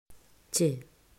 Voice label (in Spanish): pronunciacion fonema ch; mausschool